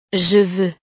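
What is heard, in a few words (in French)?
"je veux", French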